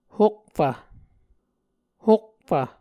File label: "hokfv", Muscogee